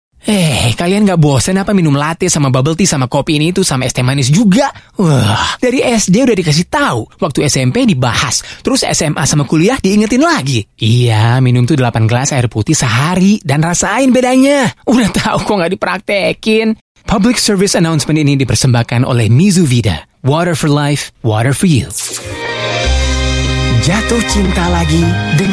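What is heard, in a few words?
Bahasa Indonesia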